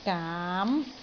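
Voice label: "gaam2", Cantonese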